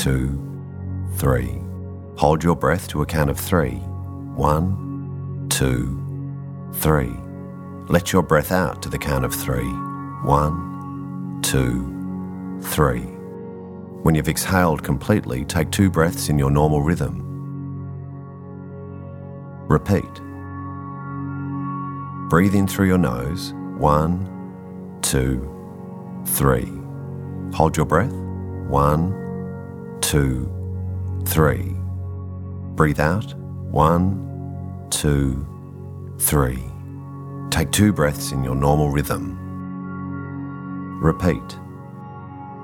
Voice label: Breathing Exercise male voice